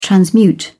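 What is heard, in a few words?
"transmute", British English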